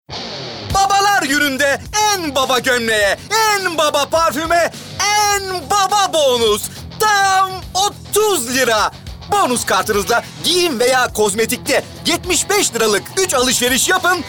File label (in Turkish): Promosyon